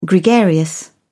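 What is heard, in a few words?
"gregarious", British English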